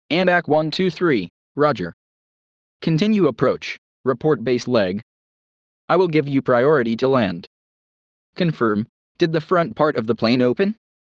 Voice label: ATC Belly landing